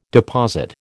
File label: "deposit", American